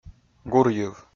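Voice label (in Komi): "гурйыв", Komi, male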